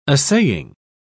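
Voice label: "assaying", British English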